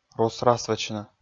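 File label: "рус-расвӧчны", Komi, male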